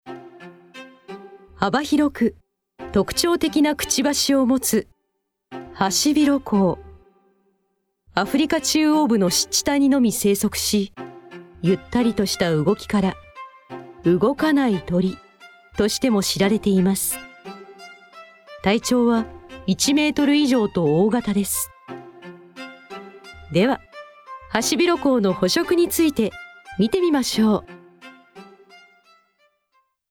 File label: kyouzai; 女性